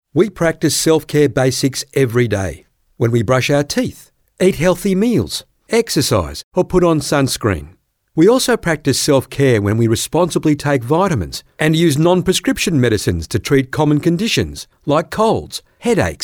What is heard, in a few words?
Natural; Voice Overs